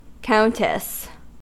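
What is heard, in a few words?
"countess", American English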